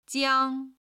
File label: "jiang1", Chinese